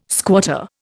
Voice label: "squatter", Indian